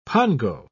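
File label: "pongo", British English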